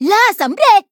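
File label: level up, Thai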